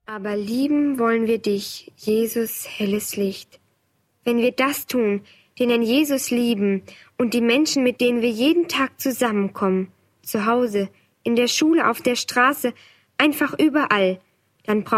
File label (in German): Sprecherin